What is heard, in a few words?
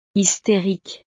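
"hysterique", French; estados animo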